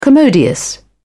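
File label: "commodious", English, British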